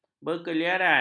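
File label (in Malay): "berkeliaran", Malay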